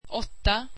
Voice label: swedish eight